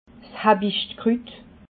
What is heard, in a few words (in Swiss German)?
"habichtkrut", alsace